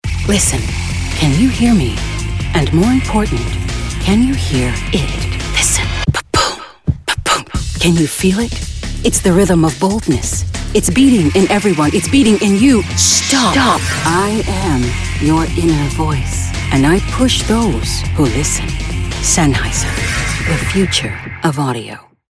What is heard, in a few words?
Spot; SENNHEISER